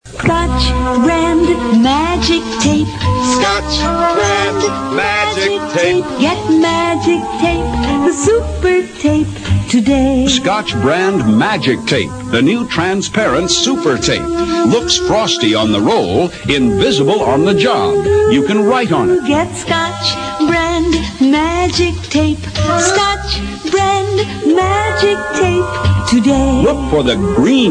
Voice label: Spots Scotch Tape

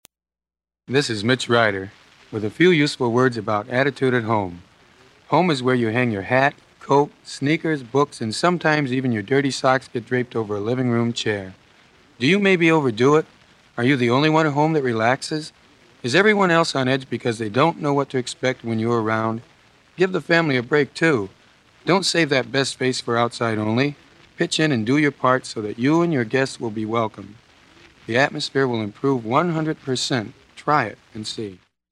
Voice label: Radio Promo

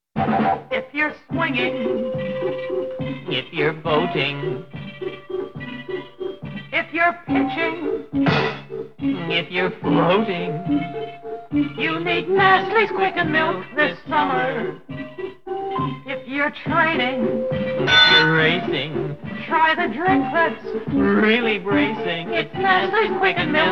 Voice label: TV Commercials - Nestles Quik Chocolate Flavor

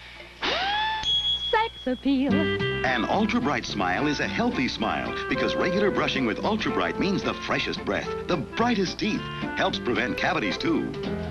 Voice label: 1960s Toothpaste Commercial; Ultra Brite

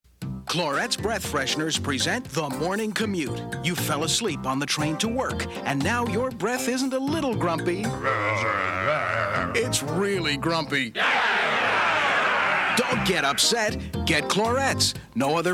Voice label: Clorets Radio Spot

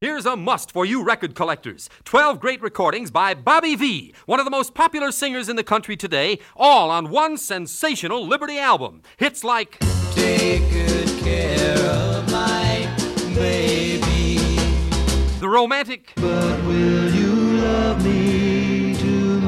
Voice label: Radio Commercials

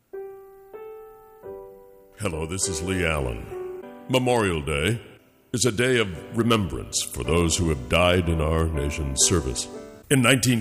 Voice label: PROMO; MEMORIAL DAY

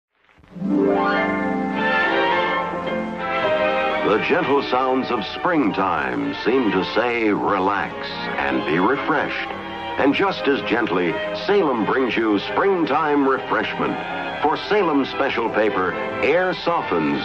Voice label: Cigarettes Commercial Ad; Salem

